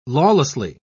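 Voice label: "lawlessly", British English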